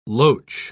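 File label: "loach", British English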